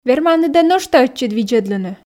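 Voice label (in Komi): верманныд-ӧ нӧшта ӧтчыд видзӧдлыны, Komi, female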